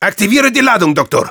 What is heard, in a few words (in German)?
Spy activate charge, de; "Aktiviere die Ladung, Doktor."; Spy Sprachkommandos